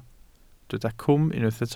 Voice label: "cwm", Welsh